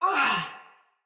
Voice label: Battle Female; Sinecure Soldier Mace Agent; Sinecure Melee

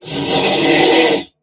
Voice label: Threatened Crewmen; Warframe; Corpus Crewmen; Crewman E; Dialog Barks Battle

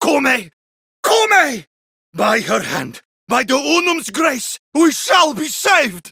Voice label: Villagers Ostron Male Older en

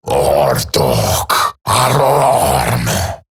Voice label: Player Spotted Male; en; Dialog; Barks; Battle; Grineer; Eximus

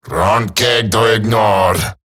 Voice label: Patrol Eximus Grineer Male; Battle Barks

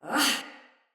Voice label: Attack, Female; Battle; Barks; Dialog; Sinecure Soldier Female B

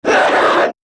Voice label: Attack Grineer Manic; Battle Barks

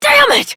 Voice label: Fail Interjections, Adult, en; Warframe; Duviri; Conservation

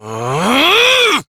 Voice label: Abil Exert Adult en; Warframe; Duviri; Drifter; Melee

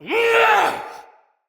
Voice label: Attack Heavy, Soldier Male; Dendrit

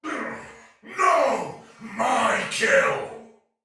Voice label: Damage Low Chance Soldier Male, Battle; Barks; Dialog; Sinecure Soldier VIP Male A

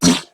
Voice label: Pain, Grineer Male; New War; Narmer

